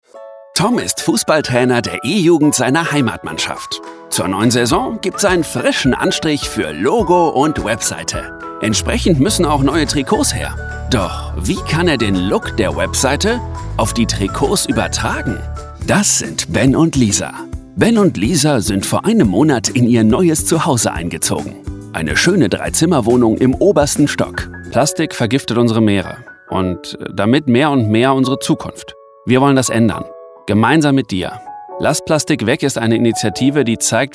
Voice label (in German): erklaervideo consumer